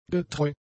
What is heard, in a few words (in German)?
"getreu", german; adjectives